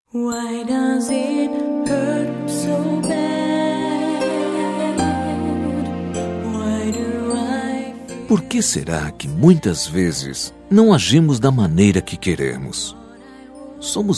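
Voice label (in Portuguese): Namorados Com Pedido de Desculpas, male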